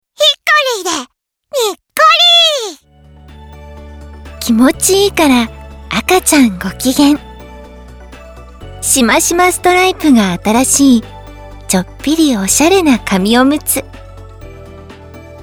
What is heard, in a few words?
narration